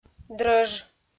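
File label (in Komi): "дрӧж", Komi, female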